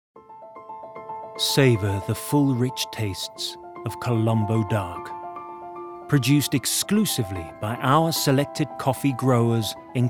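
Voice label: British English advert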